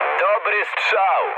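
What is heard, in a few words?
player killed enemy mood med, speech Polish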